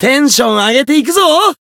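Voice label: Voice Japanese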